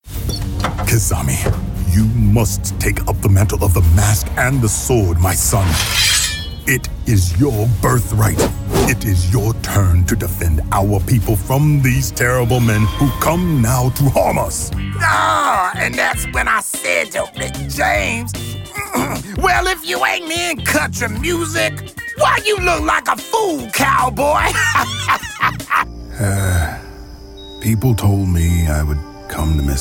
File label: Character